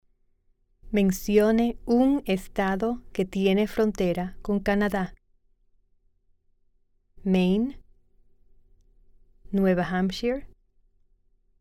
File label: Spanish